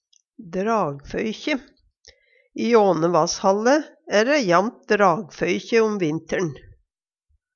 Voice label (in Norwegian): "dragfoykje", Numedalsmål; Vêr og føre